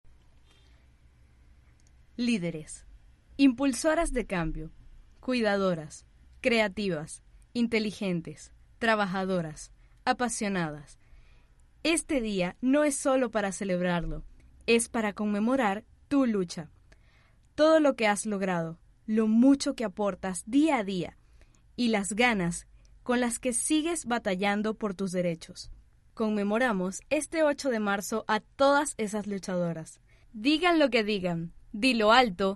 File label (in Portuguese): ESPANHOL FEM